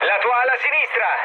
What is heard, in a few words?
left wing mood med, speech it; War Thunder